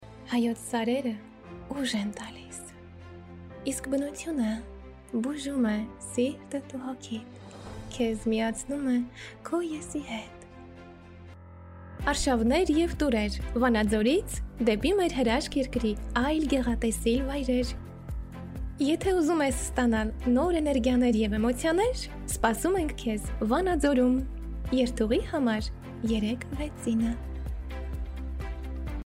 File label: Commercial, voice demos; GoLocalise